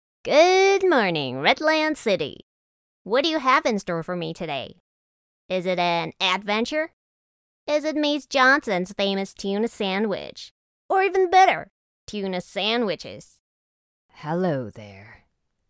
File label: English animation